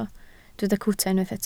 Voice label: "cwta", Welsh